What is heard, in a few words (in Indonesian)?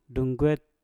"dunget" dada, Mpur